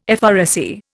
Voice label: "FRSE", Indian